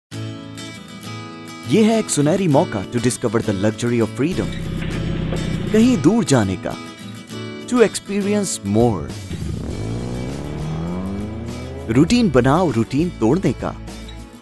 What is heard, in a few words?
Hinglish Voiceover